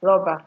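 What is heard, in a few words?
"robber", Nigerian Pidgin, female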